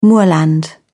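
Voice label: "moorland", British English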